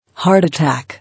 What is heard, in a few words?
"Heart attack", English